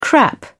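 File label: "crap", English, British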